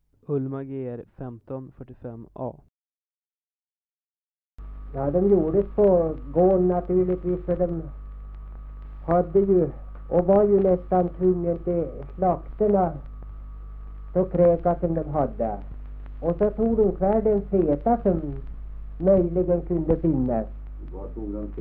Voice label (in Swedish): Ljustorp, dialekter; Svenska sagor; Folkminnen